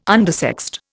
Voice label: "undersexed", Indian

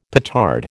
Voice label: "petard", American

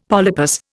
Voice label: "polypus", Indian; Dictionary

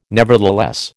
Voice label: "nevertheless", American

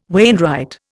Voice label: "wainwright", Indian

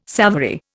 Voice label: "savoury", Indian

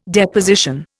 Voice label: "Deposition", Indian

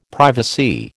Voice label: "privacy", American

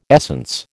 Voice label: "essence", American

